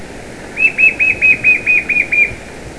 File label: MALE; Andarai